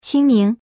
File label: slot fruits female